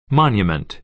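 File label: "monument", American English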